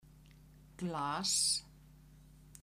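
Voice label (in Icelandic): "glas" Icelandic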